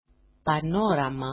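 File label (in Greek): "panorama", Greek; πανόραμα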